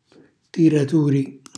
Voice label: "tiraturi", calabrese; cucinacalabrese; glossario

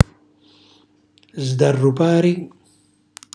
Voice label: "sdarrupari", Calabrese; cucina calabrese

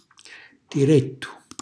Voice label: "tirettu", calabrese; cucina calabrese

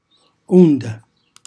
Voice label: "unda", Calabrese; onda; cucinacalabrese; glossario

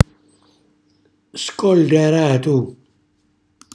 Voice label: "scollaratu", Calabrese; cucina calabrese

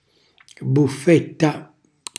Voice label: "buffetta", Calabrese

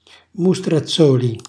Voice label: "mustrazzoli", Calabrese; mostaccioli; cucina calabrese; Glossario

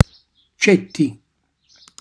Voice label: "ccetti", Calabrese; imposte di una finestra; cucinacalabrese; glossario

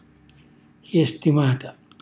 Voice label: "xjestimata", calabrese; χjestimàta; bestemmia; cucinacalabrese; glossario

